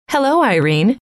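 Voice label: "hello", female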